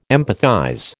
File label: "empathize", American